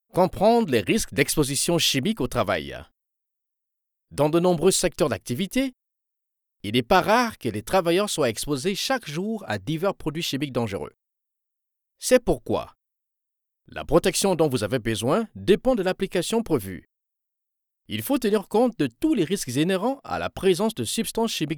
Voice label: french

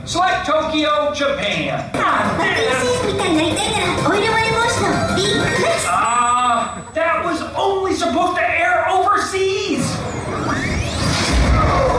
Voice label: japanese others

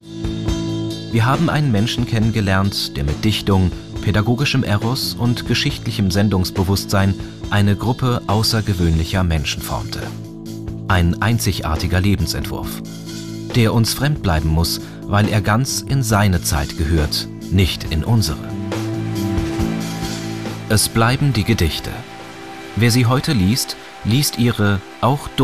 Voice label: German documentaries

